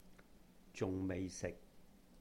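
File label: "juhng-meih-sihk", Cantonese; have not eaten yet; 仲未食; zung6mei6sik6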